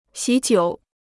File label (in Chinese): "喜酒", Chinese